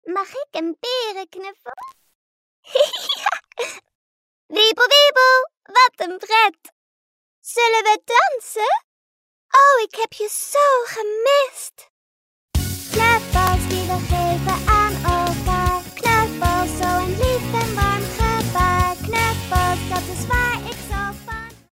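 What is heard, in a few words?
Dutch, child voice; GoLocalise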